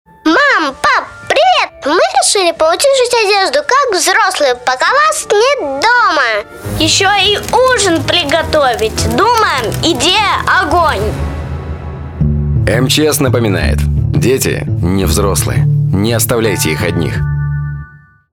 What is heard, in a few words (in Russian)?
deti pozhar radio; mchs